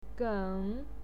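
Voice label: "gen", Mandarin Chinese, third tone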